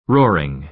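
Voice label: "roaring", American English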